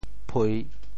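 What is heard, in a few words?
"phui3", Teochew; 啡; fēi; 白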